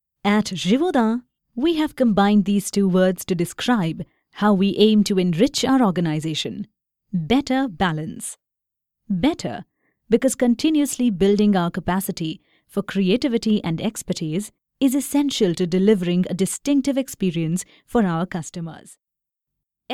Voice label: Dry Studio Reel Indian English